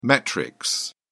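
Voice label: "metrics", English, British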